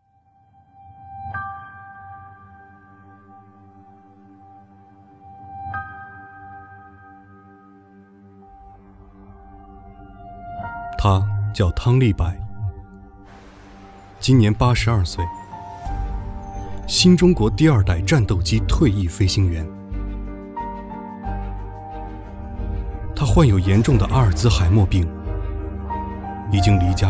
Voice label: 国语男 公益广告; 央视

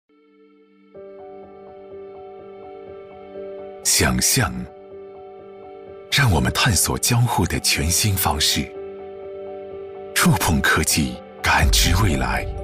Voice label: 国语男 形象片 科技; 努比亚X JOS双屏交互系统

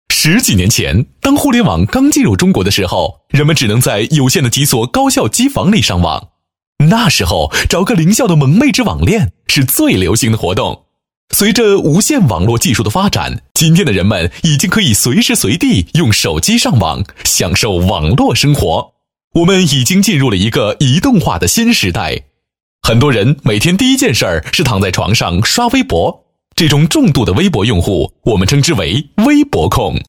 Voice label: 国语男 调侃病毒类; 移动化

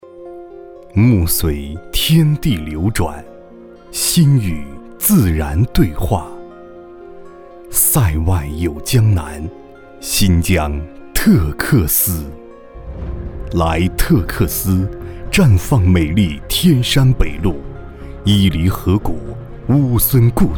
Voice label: 国语男-解说; 特克斯

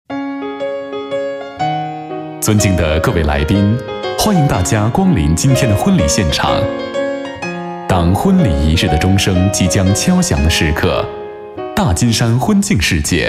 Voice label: 国语男 会议开场类; 大金山婚庆

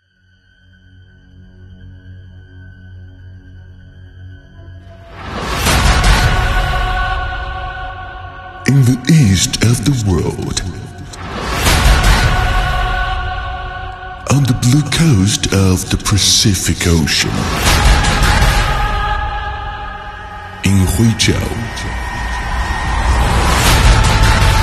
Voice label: 英语男-【英语】浑厚科技感

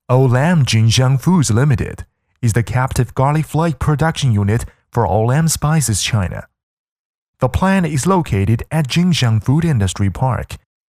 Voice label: 英语男, 课件

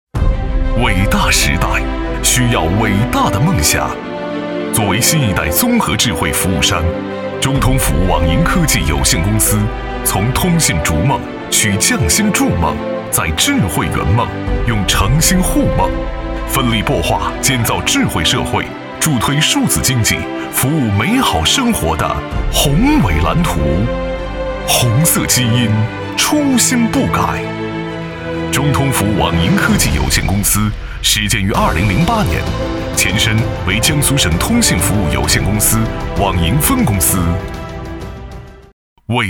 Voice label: 国语男 企业宣传; 中通服

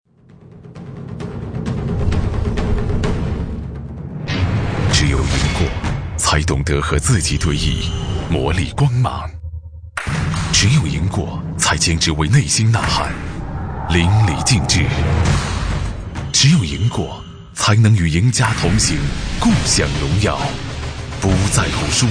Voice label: 国语男 广告 科技产品类 手机; HANMAC BON7手机

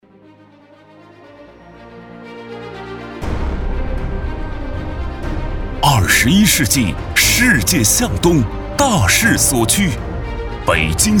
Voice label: 国语男-【大气专题】; 北京通州世纪新城

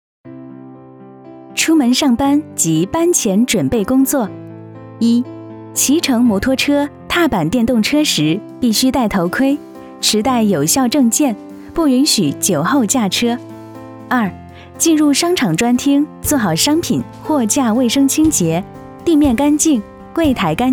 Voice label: 甜美解说

